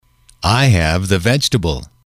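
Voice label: "I have the vegetable", English, male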